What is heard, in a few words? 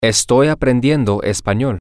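Spanish, male; i Am Learning Spanish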